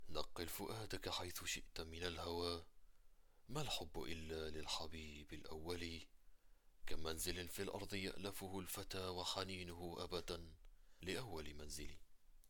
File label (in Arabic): Audiobook, أمتلك صوتًا عميقًا وطبيعيًا، يتميز بالوضوح والقوة